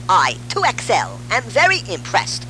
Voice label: impressed